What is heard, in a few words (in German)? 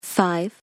zahlen englisch weiblich 5